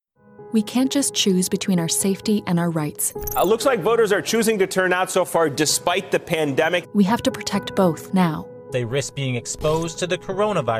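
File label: Political, voice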